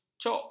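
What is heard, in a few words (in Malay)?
"cok", Malay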